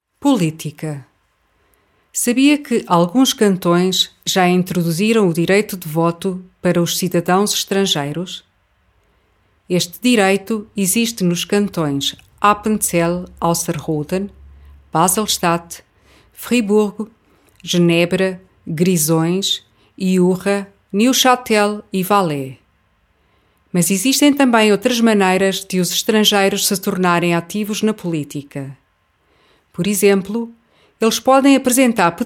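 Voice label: Portuguese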